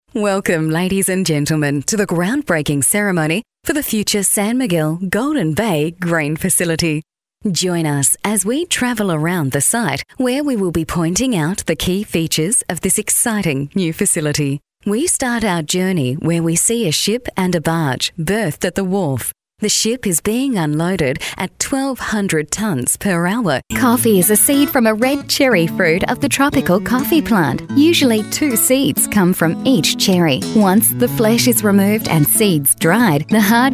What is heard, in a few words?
CORPORATE